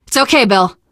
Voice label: revive friend, survivor voice teen girl; Left 4 Dead; Source Engine; video games